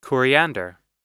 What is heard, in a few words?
"coriander", us